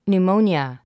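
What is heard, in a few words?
"pneumonia", English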